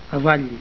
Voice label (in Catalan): avall, catalan